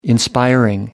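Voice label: "inspiring", English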